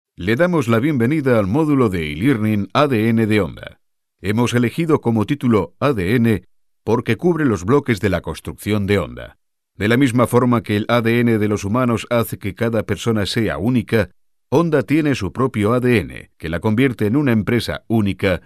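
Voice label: male, Spanish, male, elearning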